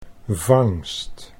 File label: "vangst", Dutch